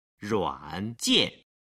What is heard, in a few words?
"ruanjian", Chinese